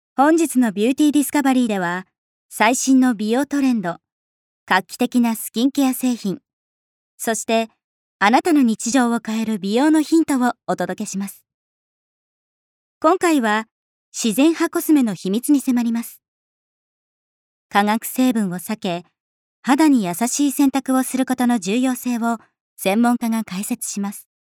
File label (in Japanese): ナレーション